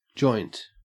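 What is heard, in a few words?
"joint", Australian English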